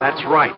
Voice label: that's right male; Voice

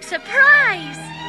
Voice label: "surprise", girl; Voice

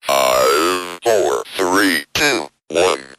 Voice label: "1 2 3" robot; numbers and letters

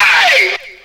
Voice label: "ay" HEAVY FILTER

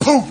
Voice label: "poof", voice; ooh ahh

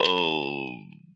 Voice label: "oh" male; ooh ahh

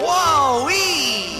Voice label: "wowee" cartoon

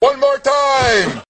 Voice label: one more time announcer, voice; numbers and letters

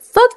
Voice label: "fuck" drag; Voice; expletives

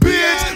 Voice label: "bitch", expletives

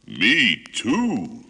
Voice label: "me too", male

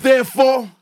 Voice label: "therefore", male

